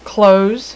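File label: "close", female, voice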